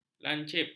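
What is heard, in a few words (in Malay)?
"lancip", Malay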